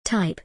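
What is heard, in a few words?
"type", English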